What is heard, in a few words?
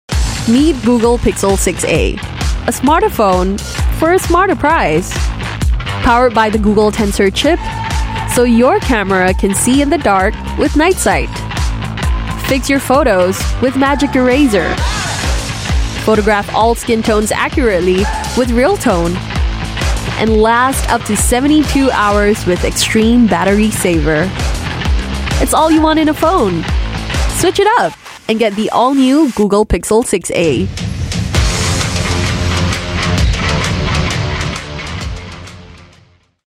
Asian English Cool; Google Pixel